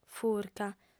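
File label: "furca", female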